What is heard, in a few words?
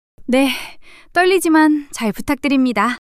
fluster